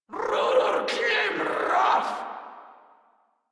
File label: Attack Grineer Female; Warframe